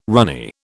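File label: "runny", British English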